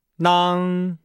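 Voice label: "nang", Chinese; Nihao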